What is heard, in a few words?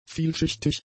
"vielschichtig", German; adjectives